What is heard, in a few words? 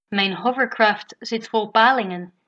hovercraft, dutch